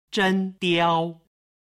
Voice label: "zhen diao", Chinese